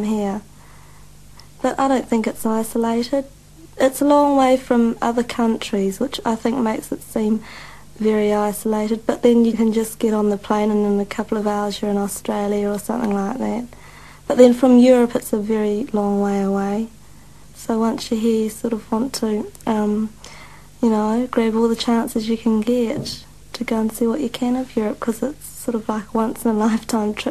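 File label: NEW ZEALAND, Accents of English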